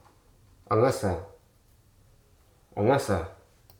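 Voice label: "junior", Hruso Aka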